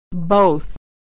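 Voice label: "both", American English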